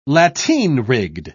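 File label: "lateen-rigged", British English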